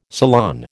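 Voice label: "salon", American English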